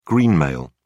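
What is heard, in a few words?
"greenmail", British English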